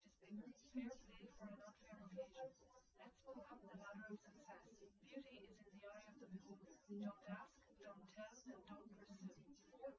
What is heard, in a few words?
test female liverec 250ms 5cm sim; SiSEC08; SiSEC underdetermined